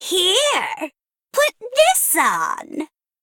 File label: Female Harpy